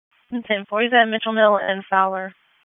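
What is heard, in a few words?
Dispatch 1, P25; WSO DISP 1; wake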